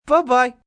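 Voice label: "Bye bye" male; Greetings And Goodbye; Goodbyes; VoiceBox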